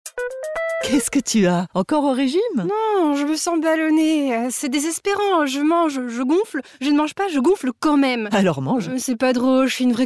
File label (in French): radio; Carbolevures